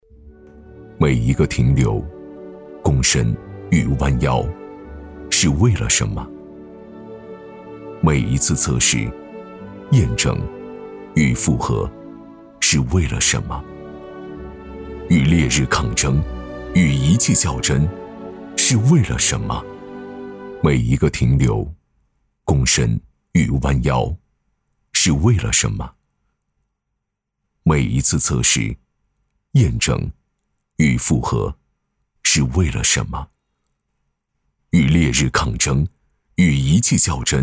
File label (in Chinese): 男 宣传片; 每一个停留